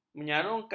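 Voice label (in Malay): "menyarungkan", Malay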